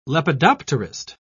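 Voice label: "lepidopterist", British English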